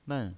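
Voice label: "men", pinyin voice, Mandarin Chinese, fourth tone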